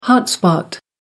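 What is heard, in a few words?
"hot spot", British English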